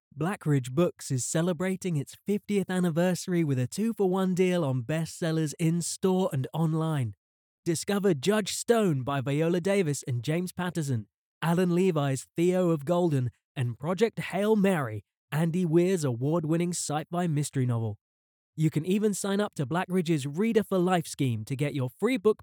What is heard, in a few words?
male british voice over narrative, english british